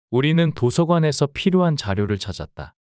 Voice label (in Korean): 문장 남자